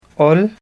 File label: write, Ho